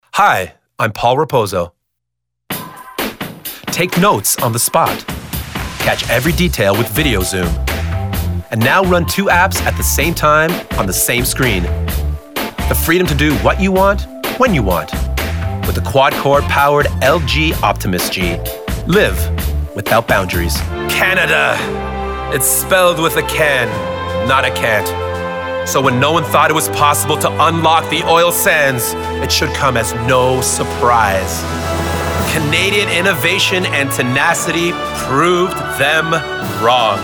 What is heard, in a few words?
commercial male